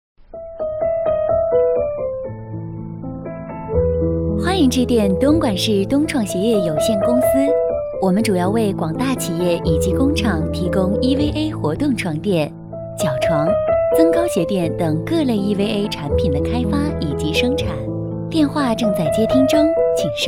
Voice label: 女 彩铃, 国语女声配音; 东创鞋业

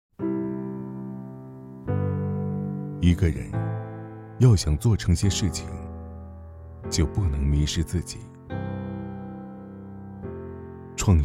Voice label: 男 温暖独白

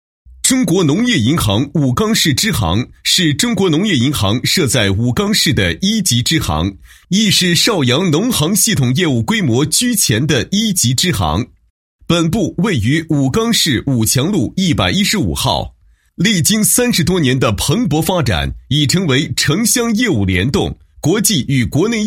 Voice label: 男 企业; 中国农业银行武冈市支行

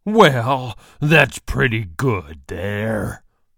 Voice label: 外籍男

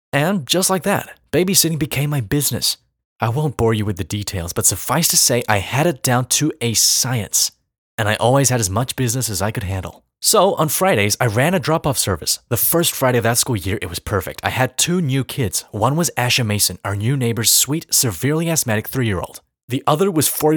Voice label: 外籍英男, 干音, 活力

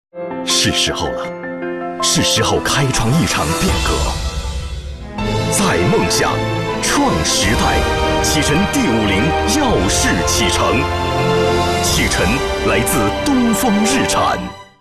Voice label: 男 汽车广告, 国语男声配音

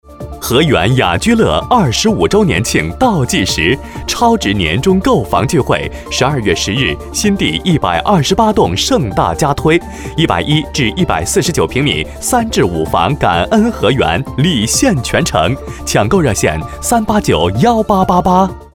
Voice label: 男,中文,广告类,房产广告

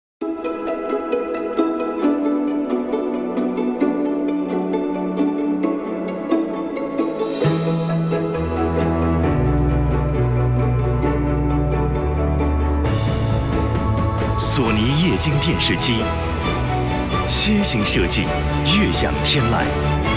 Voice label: 男 广告, 国语男声配音

